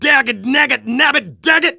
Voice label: Engineer negative vocalization

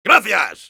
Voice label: soldier thanks, es

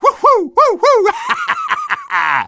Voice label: Sniper taunt

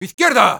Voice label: head left, es; Sniper

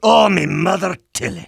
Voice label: negative vocalization

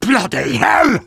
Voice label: jeers; Demoman

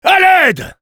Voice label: help me, fr; Engineer

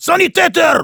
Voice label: medic, de; Heavy; "Sanitäter!"

